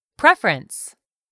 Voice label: "preference", English; 3000 words

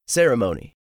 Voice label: "ceremony", english; 3000 words

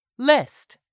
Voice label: "list", English; 3000 words

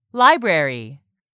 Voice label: "LIBRARY", english; 3000 words

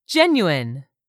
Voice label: "genuine", English; 3000 words

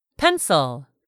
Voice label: "pencil", English; 3000 words

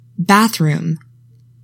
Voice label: "BATHROOM", English; 3000 words

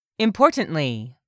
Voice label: "importantly", English word; 3000 words

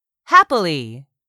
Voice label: "happily", English; 3000 words

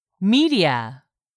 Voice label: "media", English; 3000 words

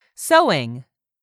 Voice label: "sewing", English; 3000 words

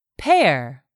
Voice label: "pair", English; 3000 words

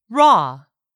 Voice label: "raw", English; 3000 words

